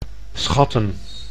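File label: "schatten", Dutch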